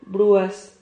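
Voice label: "bruas", Spanish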